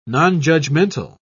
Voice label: "nonjudgmental", en uk

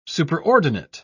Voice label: "superordinate", en uk

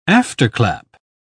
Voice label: "afterclap", uk

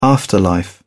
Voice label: "afterlife", uk

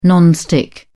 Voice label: "non stick", en uk

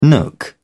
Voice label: "nook", en uk

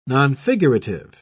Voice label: "nonfigurative", en uk

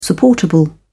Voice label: "supportable", en uk

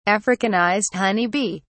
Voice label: "africanized honey bee", uk

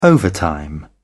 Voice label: overtime, uk

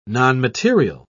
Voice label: "nonmaterial", en uk

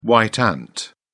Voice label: "white ant", uk

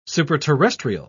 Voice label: "superterrestrial", en uk

